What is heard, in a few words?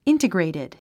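"integrated", English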